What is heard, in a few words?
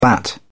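"bat", British English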